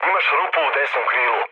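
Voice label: Serbian right wing mood low, speech; War Thunder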